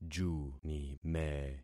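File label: "junime", concatenated syllables; Affixing experiment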